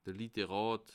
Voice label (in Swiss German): "literat", alsace